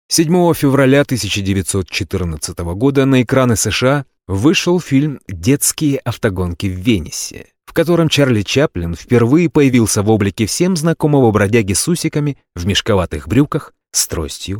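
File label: Russian documentary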